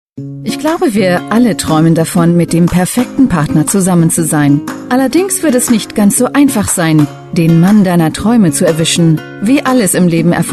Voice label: voice over female narrator; germany